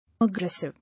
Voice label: "Aggressive", English